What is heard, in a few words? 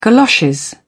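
"galoshes", British English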